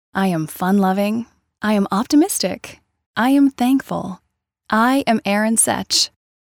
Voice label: women mini demo